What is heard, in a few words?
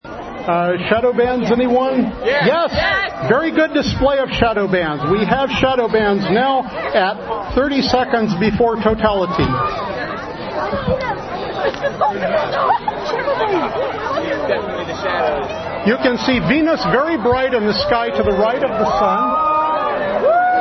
Eclipse Narration